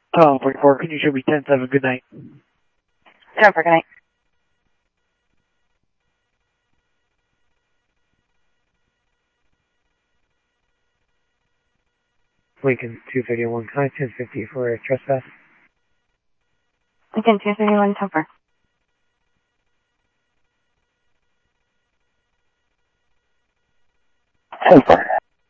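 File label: Scottsdale Police Department PD2 Scottsdale Police, scanner audio; SCTSDLE PD - PD2; H-Scottsdale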